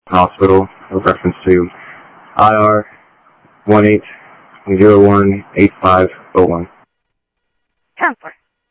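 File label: Sheriff East County, scanner audio; South Scottsdale; MCSO - EAST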